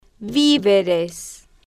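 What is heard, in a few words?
"vi ve res", slow, female, Spanish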